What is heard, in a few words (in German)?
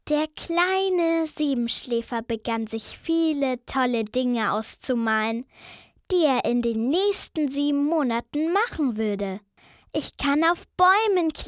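Mädchen; Siebenschläfer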